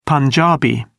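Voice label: "punjabi", British English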